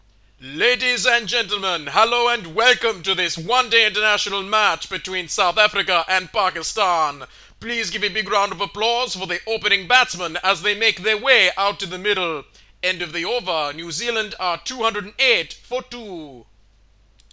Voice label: indian voice